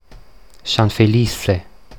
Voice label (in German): San Felice Mundart